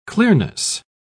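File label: "clearness", English, uk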